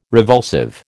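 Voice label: "revulsive", American English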